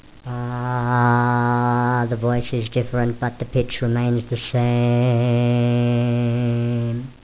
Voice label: pitch in helium; SPEECH HELIUM